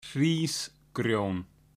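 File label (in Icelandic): "hrisgrjon", Icelandic; matarhefti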